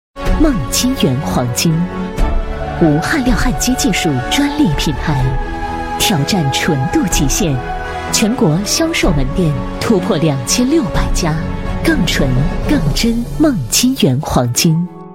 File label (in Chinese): 女4号 品牌广告, 国语女声配音; 优雅配音; 梦金园黄金挑战篇